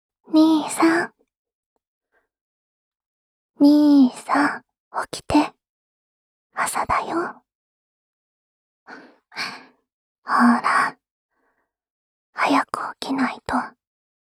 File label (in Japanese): スマホ用目覚ましボイス